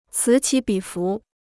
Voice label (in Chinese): "此起彼伏", Chinese